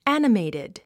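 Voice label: "animated", English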